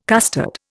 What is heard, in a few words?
"custard", Indian